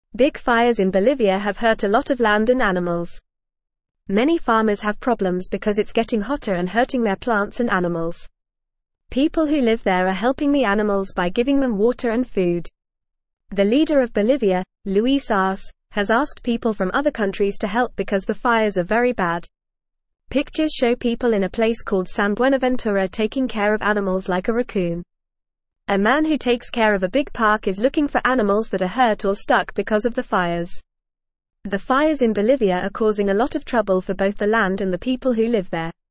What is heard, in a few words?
English Lower Intermediate FAST Reading; Big Fires in Bolivia Hurt Land and Animals